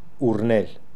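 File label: "Oornel", pronunciations; Fourth Way; Beelzebub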